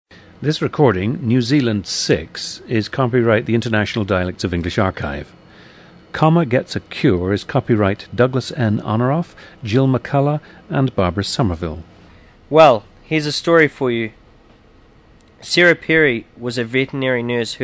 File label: New Zealand